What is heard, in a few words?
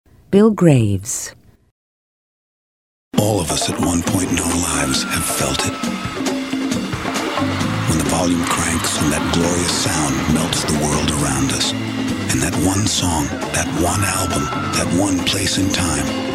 commercial men